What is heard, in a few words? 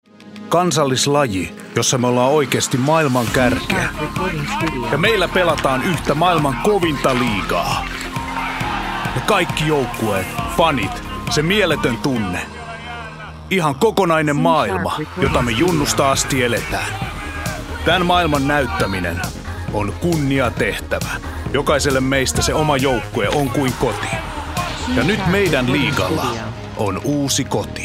Finnish Male